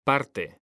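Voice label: "parte", Spanish, male; mekanta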